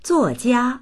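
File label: "zuo4jia1", Mandarin Chinese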